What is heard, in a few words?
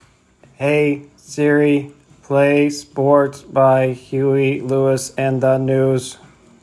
Robot voice